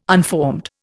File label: "unformed", Indian